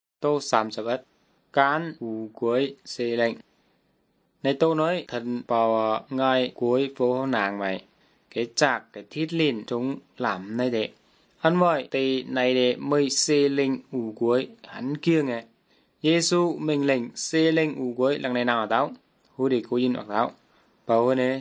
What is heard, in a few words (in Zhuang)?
good news zhuang nanning fushu